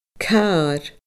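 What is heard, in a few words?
"car fada", Scottish Gaelic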